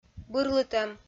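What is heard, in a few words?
"бырлытӧм", Komi-Zyrian, female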